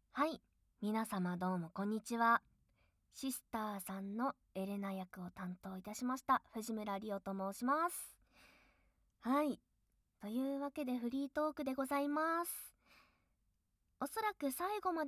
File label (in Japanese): フリートーク